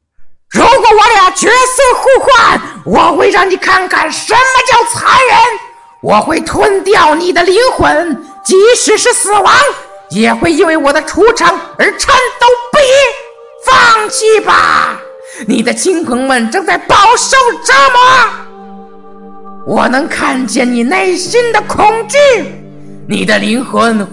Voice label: 邪恶狡诈游戏角色

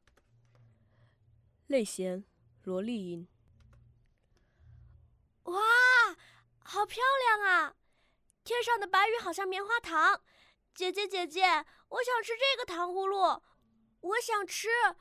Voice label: 人物声线展示

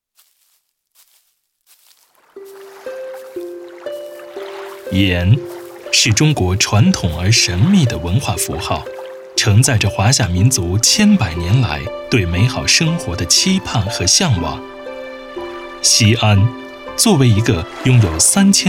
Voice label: 纪录片

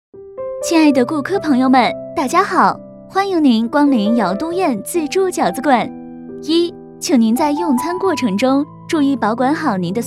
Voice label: 门店广播类

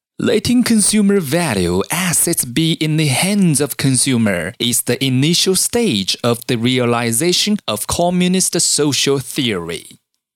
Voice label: 英文试音

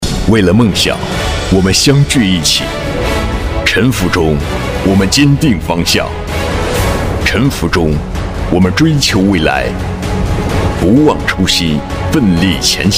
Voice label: 广告解说带音乐

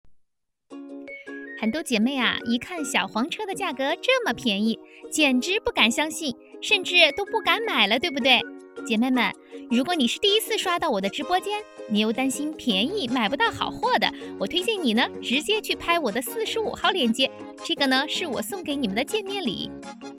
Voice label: 电商直播带货

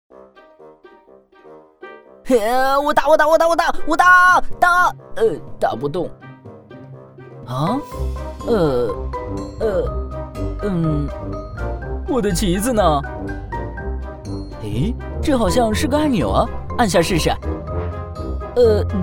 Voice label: 动漫人物古灵精怪

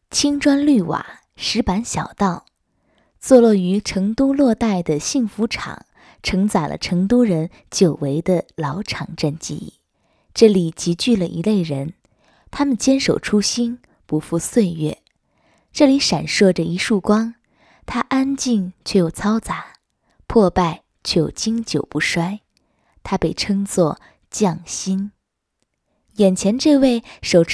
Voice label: 纪录片成都

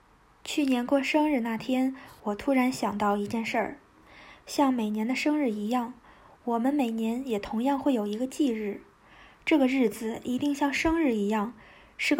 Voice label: 朗读读物; 时间看得见

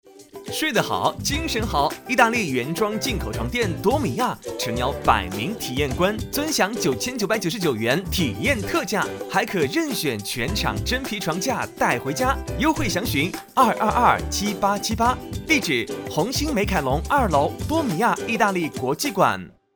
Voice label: 广告 配音

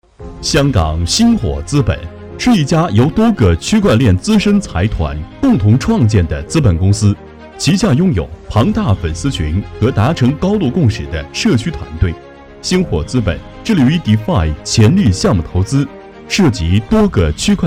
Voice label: 宣传片; 星火资本

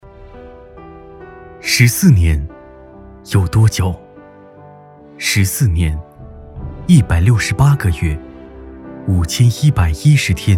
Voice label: 宣传类 走心 感

